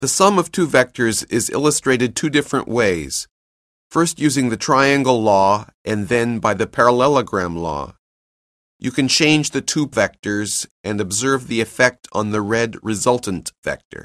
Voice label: narration; CALCULUS 7E; cengage